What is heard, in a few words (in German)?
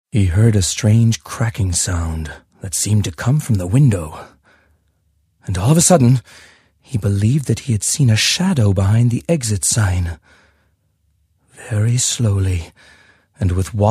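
US English, Schauspiel, Sanft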